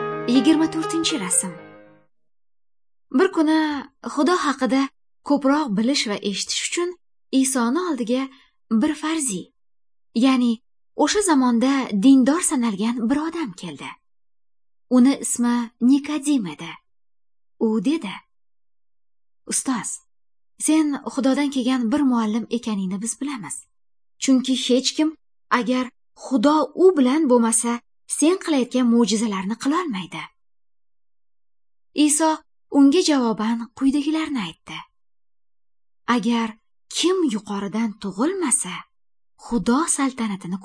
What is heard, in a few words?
good news female uzbek northern